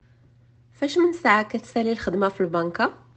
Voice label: Morrocan